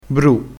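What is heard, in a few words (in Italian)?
"bru", dialettale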